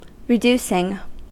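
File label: "reducing", American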